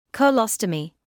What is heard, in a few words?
"colostomy", English